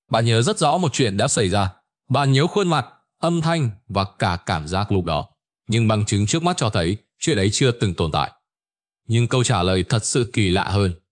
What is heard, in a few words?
narration